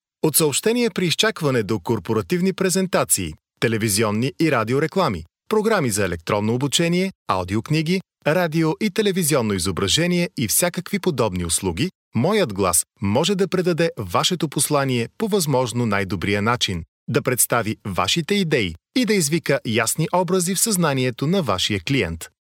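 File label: Bulgarian, male, voice over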